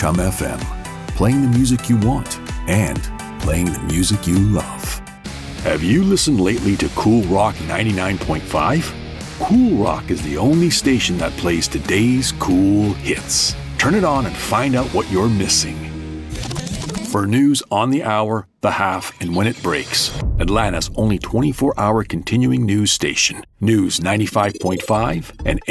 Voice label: Radio Ad